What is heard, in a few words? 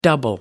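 "double over", British English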